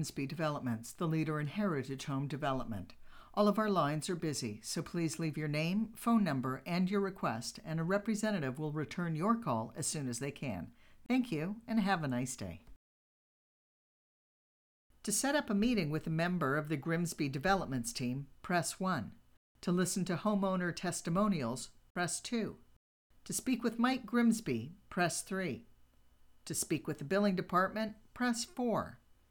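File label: voice sample telephone system voicemail prompts, female voice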